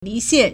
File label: "li2xian4", Chinese